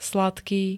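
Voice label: "sladký", Slovak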